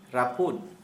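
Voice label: "broken", Santali, male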